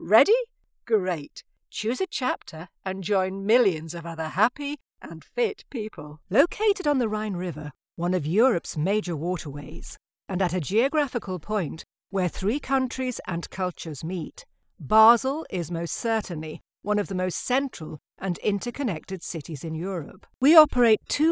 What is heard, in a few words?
english british others